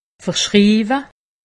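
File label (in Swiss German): "verschriiwe", Alsatian; medecine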